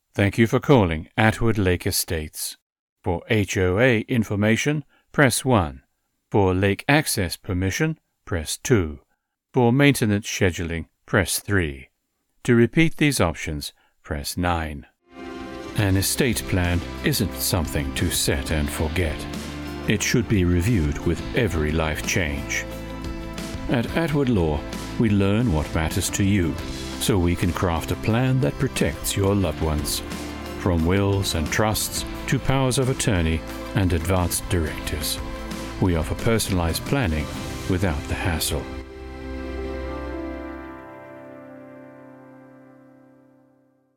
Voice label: IVR On Hold British; AdvantageIVR